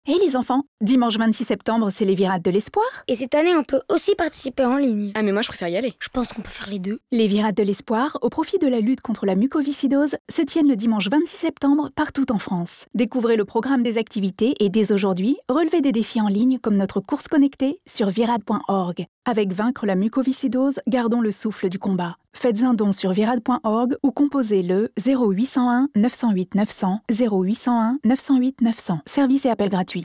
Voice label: Radio; Virades 2021

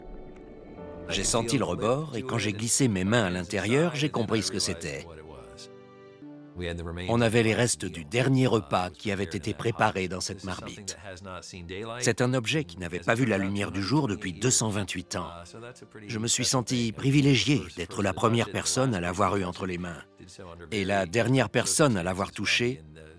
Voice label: voice over Histoire tv; SPAIN SECRET CONQUEST

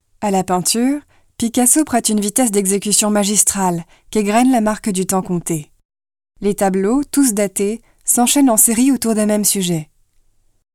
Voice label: audioguide; voix-off; PICASSO

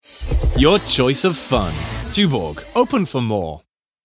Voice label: UK; Tuborg Newroute

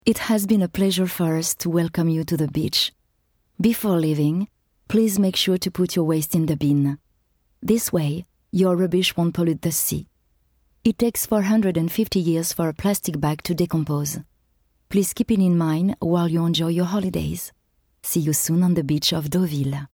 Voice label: Spot UK; Deauville

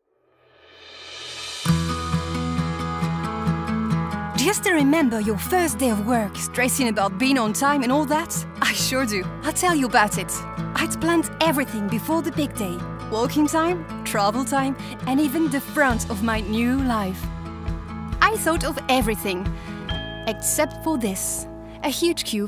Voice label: ENGLISH SPOT WEB; Keolis

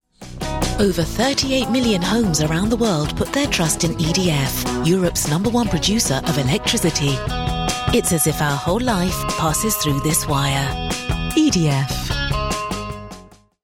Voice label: commercial; edf

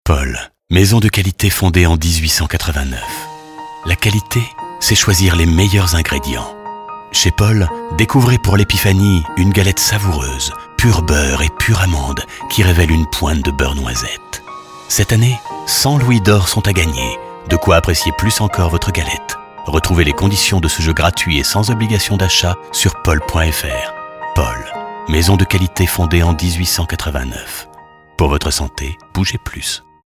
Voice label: Radio

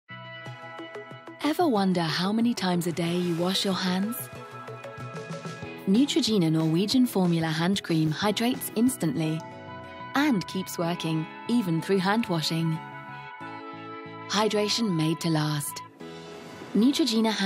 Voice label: reassuring; Neutrogena

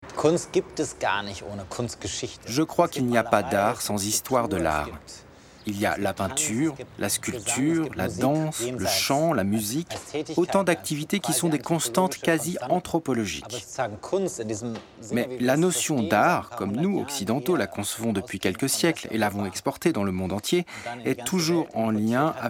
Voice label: voice over Docu; ARTE

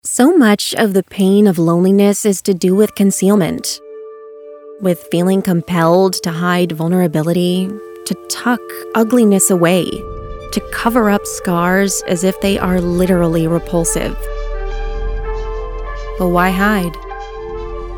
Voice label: Narration; Why Hide

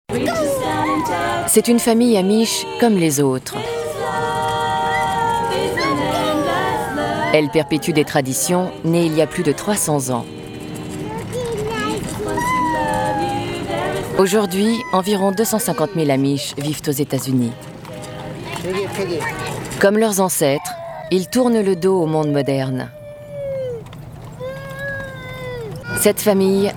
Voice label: narration; AMISH; FRANCE 5

